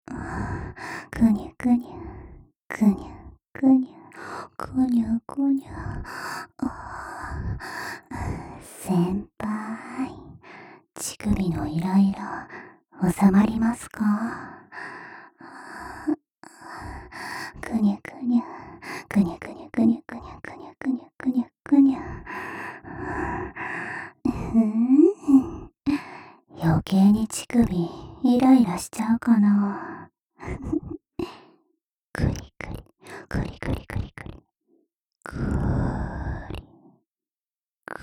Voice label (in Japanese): 耳元射精煽り (左右重ね); 耳元射精煽り A (左右重ね)